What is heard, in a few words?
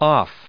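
"off", US English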